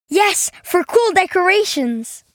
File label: ENG M